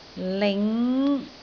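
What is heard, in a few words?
"ling5", Cantonese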